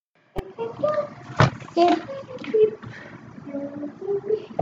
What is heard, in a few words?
Narration